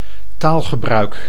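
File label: "taalgebruik", Dutch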